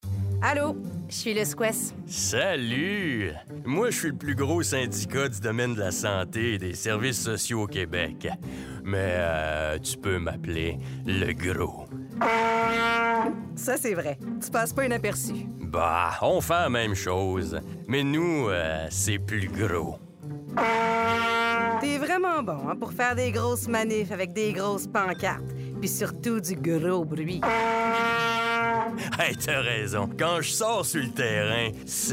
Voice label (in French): Voix Off; 60sec; TERRAIN